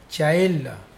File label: "low", Mokilese